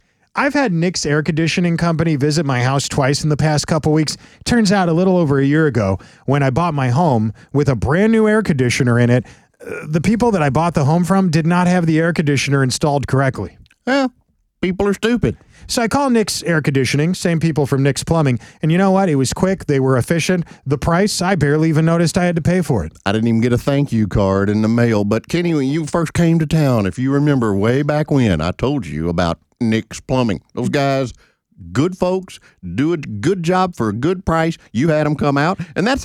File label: RADIO AD; nicks plumbing radio ads